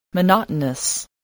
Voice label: "monotonous", voice, spelling

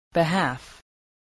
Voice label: "behalf", English

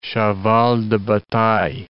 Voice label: "cheval de bataille", English

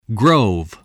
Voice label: "grove", spelling

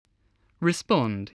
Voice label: "respond", English

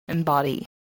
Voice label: "embody", spelling

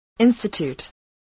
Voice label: "institute", voice spelling

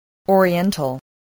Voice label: "oriental", English voice

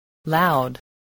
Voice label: "loud", voice

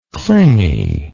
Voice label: "clinginess", English

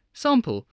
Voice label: "sample", English spelling

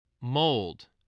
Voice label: "mold", voice, spelling

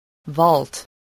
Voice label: "vault", English

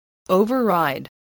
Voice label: "override", English voice

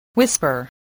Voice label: "whisper", spelling

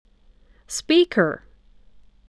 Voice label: "speaker", English voice

